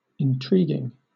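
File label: "intriguing", British English; pronunciations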